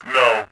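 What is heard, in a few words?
global no, voice, Bot; Tribes2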